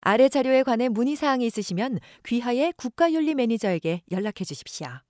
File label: korean training e-learning